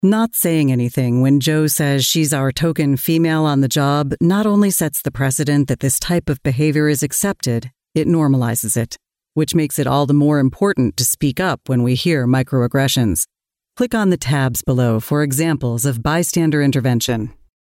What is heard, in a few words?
eLearning